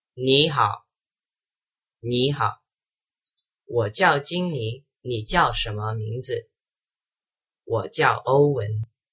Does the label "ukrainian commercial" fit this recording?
No